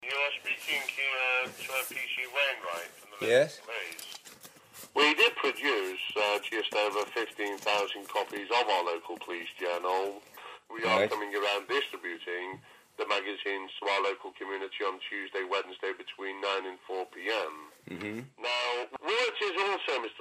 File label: scam phone call